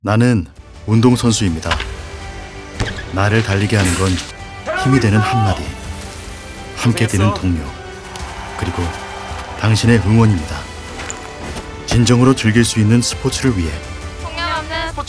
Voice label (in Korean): 명확한; 국가인권